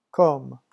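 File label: "comme", French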